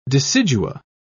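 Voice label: "decidua", English, British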